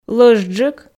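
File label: "лӧзджык", Komi, female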